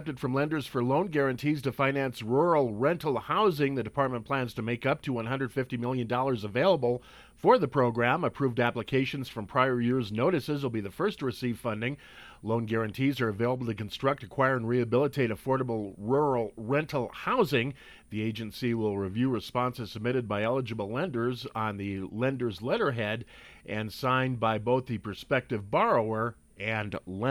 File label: wyoming radio news